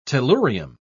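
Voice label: "tellurium", British English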